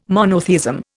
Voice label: "monotheism", Indian

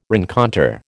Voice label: "rencontre", American

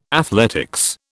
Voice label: "athletics", British

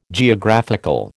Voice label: "Geographical", American

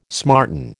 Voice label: "smarten", American

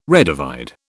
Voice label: "redivide", British

